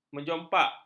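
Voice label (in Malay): "menjompak", Malay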